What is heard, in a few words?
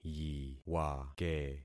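"yiwake", concatenated syllables; Affixing experiment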